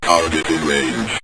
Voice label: target in range, synth voice; Kenney's Sound Pack

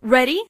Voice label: "ready", Voiceover, Female; Kenney's Sound Pack

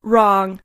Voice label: "wrong", Female; Kenney's Sound Pack

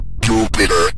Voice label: planet jupiter, Synth Voice; Kenney's Sound Pack; Synth Voice 1

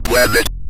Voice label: "planet", Synth Voice; Kenney's Sound Pack; Synth Voice 1

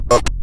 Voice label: direction up, Synth Voice; Kenney's Sound Pack; Synth Voice 1

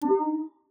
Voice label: User Interface Vocalisation, Robotic, Futuristic; Organic User Interface

